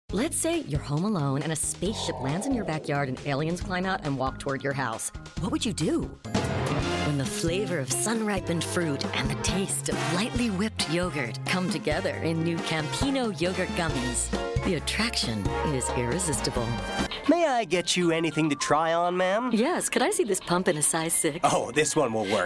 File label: Commercial VO